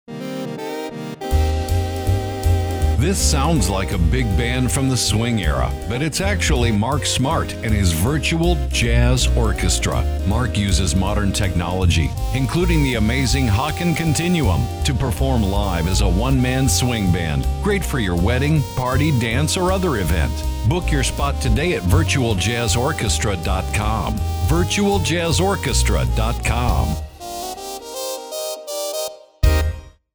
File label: Radio Commercial